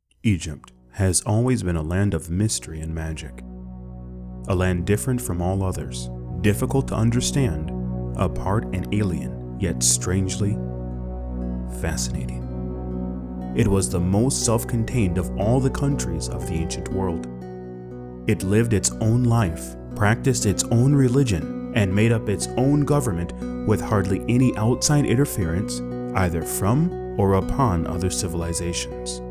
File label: Product videos English North American Male Middle